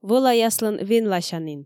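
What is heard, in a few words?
"вӧлаяслӧн венласянін", Komi, female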